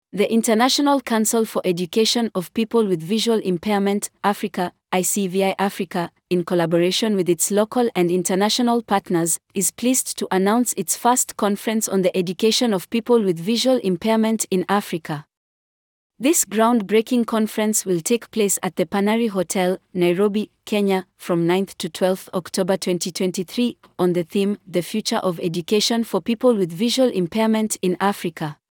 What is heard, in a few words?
voice sample, Kenya; ICEVI